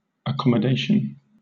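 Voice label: "accommodation", British English; pronunciation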